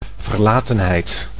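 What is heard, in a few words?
"verlatenheid", Dutch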